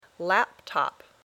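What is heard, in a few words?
"laptop", English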